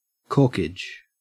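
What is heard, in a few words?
"corkage", Australian English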